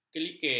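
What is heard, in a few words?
"kelikir", Malay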